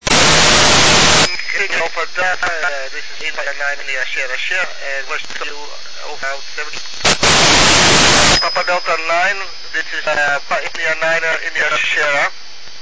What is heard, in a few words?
iss 145mhz800 dutch and russian